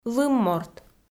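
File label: "лымморт" Komi, female; kpv audio dict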